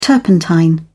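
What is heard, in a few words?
"turpentine", British English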